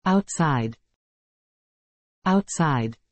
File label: "outside", English